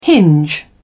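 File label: "hinge", British English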